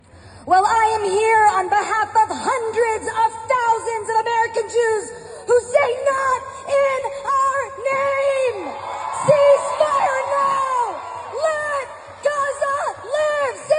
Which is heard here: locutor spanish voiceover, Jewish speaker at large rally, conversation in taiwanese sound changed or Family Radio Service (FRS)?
Jewish speaker at large rally